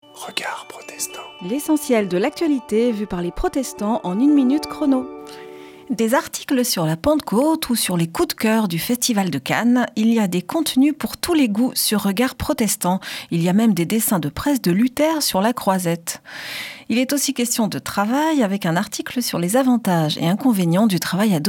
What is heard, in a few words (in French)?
Spot radio; Regards protestants